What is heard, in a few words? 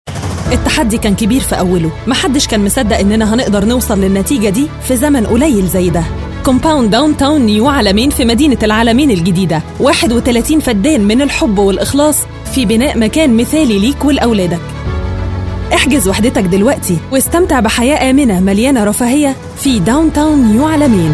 arabic voice over female commercial